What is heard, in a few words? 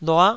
"lua9", Taiwanese syllable